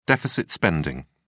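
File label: "deficit spending", British English, dictionary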